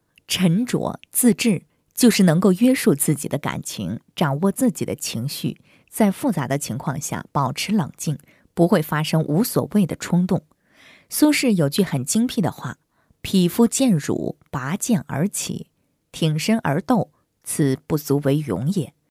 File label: chinese female voiceover; locutora china